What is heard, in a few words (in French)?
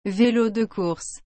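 "velo de course", French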